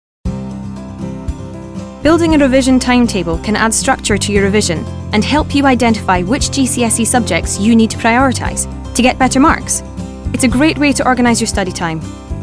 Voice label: Narrative

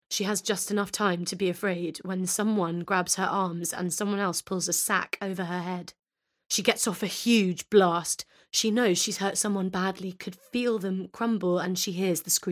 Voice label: Audiobook; 20s-40s. Mid-tone. Strong, thoughtful and calm. RP.

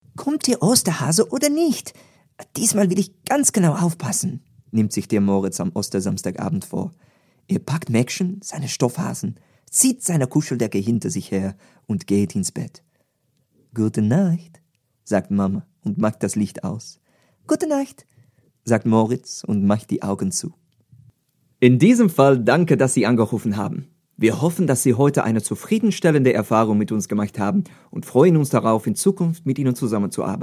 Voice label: German

